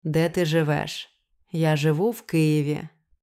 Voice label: Everyday Dialogue, ukrainian pronunciation

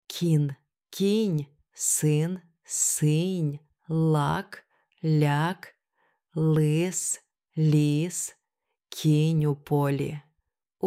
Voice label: Soft Sign Pairs, ukrainian pronunciation

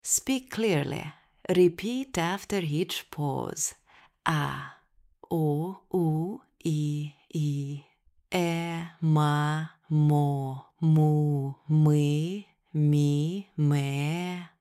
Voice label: Vowel Calibration Slow, Ukrainian

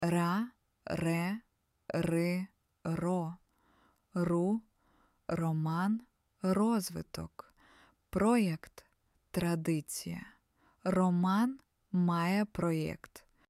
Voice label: Tap R Drill, Ukrainian

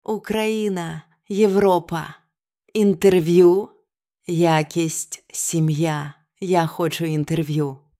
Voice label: Glide Vowels, ukrainian pronunciation

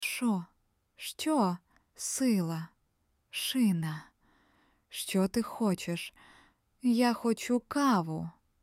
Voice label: С Ш Щ Contrast, ukrainian pronunciation

